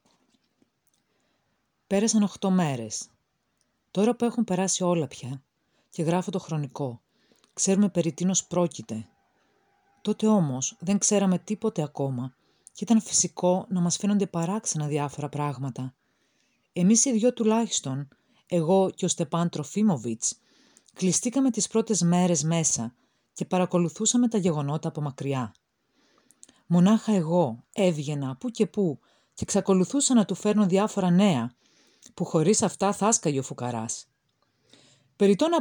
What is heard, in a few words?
Greek accent